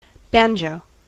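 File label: "banjo", US English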